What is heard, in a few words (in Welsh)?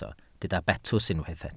"betws", Welsh